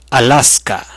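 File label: alaska, Spanish; gcompris-data; geography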